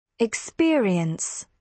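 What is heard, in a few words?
"experience", British English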